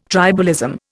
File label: "tribalism", Indian